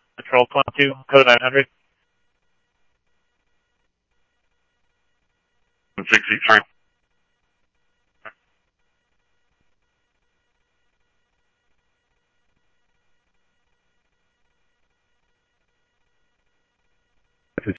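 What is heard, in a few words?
Police Department, scanner audio; SCTSDLE PD - PD1; H-Scottsdale; ScottsdalePolice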